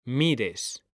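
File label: "mires", Spanish; mekanta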